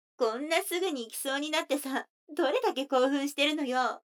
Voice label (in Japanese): 女の子台詞